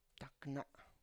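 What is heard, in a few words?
"takna", Tlacochahuaya Zapotec; hand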